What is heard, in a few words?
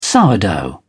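"sourdough", British English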